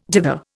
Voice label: "dither", Indian

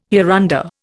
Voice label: "hereunder", Indian

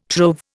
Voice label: "trove", Indian

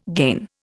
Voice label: "gain", Indian

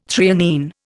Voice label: "threonine", Indian

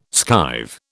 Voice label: "skive", British

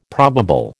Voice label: "probable", American

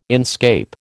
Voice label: "inscape", American

